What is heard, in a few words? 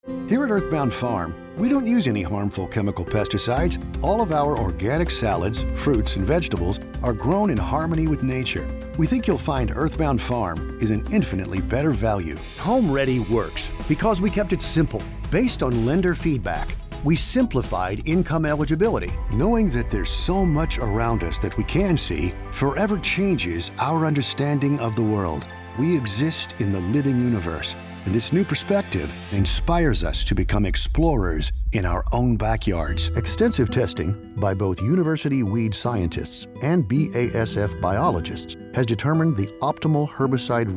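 Narration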